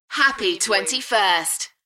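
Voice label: British female Happy 21st; beanstalk audio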